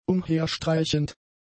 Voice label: "umherstreichend", German; adjectives